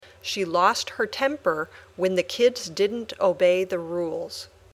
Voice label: Dictation